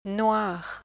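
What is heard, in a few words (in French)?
"noir", French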